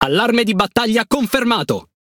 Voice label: MESSAGE EXECUTE ORDER, IT; PVE; World Of Warships

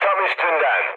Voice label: bomb success, mood med, speech tur; War Thunder

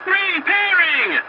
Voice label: voice message repairing; dialogs; War Thunder

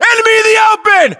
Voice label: threat infantry exposed, US

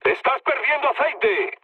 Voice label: damaged oil, mood low, speech; War Thunder

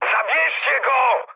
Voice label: aircraft damaged mood high, speech pol; War Thunder

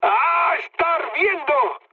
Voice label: on fire, mood med, speech; War Thunder

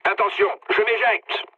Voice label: bailout mood med, speech fr; War Thunder

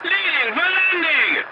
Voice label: voice message landing, english; War Thunder

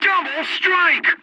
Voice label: "double kill" voiceover, english; War Thunder; dialogs ui english

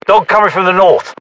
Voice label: UK threat dog north, battlechatter

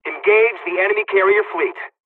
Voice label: bombing, dialogs serbian; War Thunder; midway

